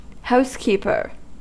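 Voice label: "housekeeper", US English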